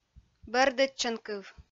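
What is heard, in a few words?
"бӧрдӧдчанкыв", Komi-Zyrian, female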